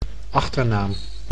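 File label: "achternaam", Dutch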